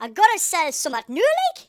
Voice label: "collect", Geordie; speech